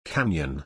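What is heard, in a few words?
"canyon", English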